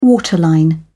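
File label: "waterline", British English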